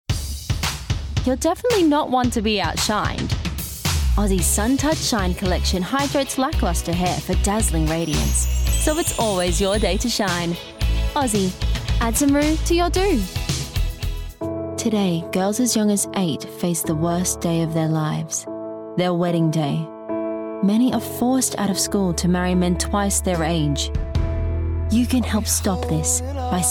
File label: Commercials, female